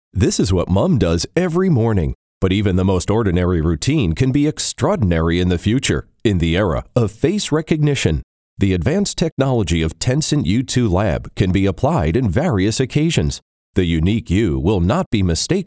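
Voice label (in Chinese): 男英大音; Sample Tencent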